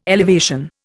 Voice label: "elevation", Indian

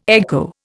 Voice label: "echo", Indian

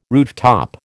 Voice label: "rooftop", American

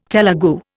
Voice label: "Telegu", Indian

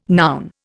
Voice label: "noun", Indian

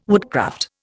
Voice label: "woodcraft", Indian

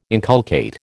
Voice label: "inculcate", American

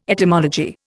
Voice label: "etymology", Indian

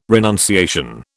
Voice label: "renunciation", British

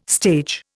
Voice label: "stage", Indian

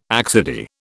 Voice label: "accidie", British

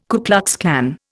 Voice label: "Ku Klux Klan", Indian

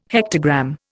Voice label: "hectogram", Indian

